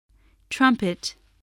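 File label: "trumpet", English word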